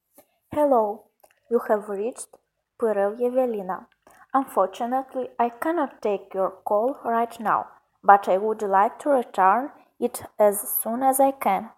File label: answer phone message house